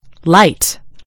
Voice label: "light", en GB; gcompris